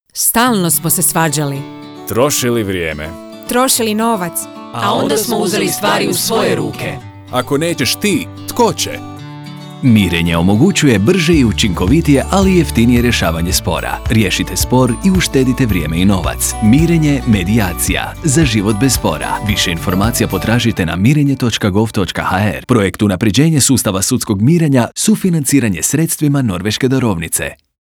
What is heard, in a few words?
Radio spot; SUDSKO MIRENJE